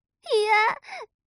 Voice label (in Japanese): mob女子台詞